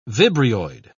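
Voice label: "vibrioid", British English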